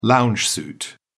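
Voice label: "lounge suit", British English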